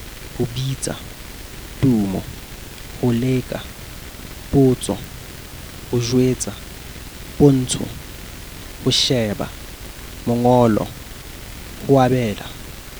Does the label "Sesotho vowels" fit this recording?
Yes